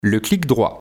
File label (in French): "le clic droit", vocabulaire; vocabulaire PMTIC